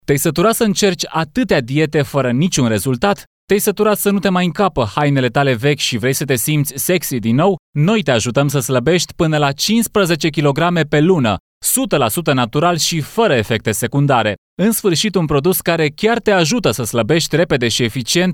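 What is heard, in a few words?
Romanian male VO Teleshopping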